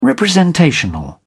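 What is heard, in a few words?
"representational", British English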